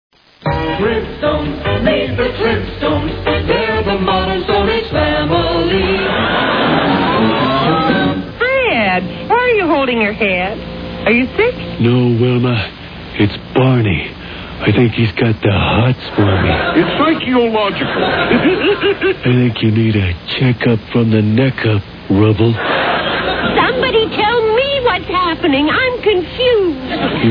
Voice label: Commercials; Clintstones 2; Freekin Weird Audio